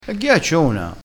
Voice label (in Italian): "ghiaccina", crespinese